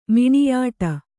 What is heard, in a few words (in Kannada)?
"ಮಿಣಿಯಾಟ", Kannada